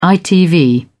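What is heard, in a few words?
"itv", British English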